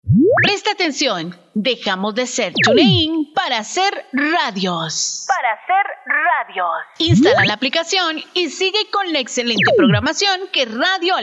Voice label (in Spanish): anuncio nueva aplicacion